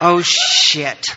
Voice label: oh shit, Voice expletives